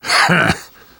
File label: taunt, survivor voice; Left 4 Dead 2; left4dead2 dlc3